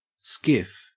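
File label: "skiff" English, Australian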